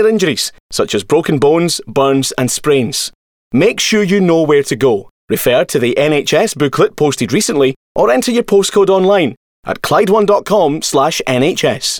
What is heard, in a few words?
radio advert hospital closures; radio clyde; vic ae will close